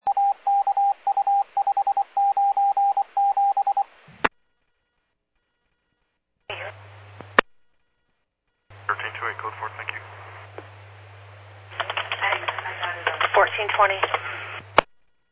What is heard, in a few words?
Medevac, scanner; NativeAirAmb